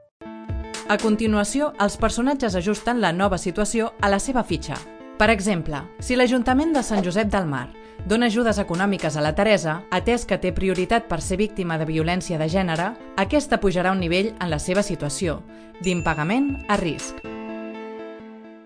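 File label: Catalan, Corporate; GoLocalise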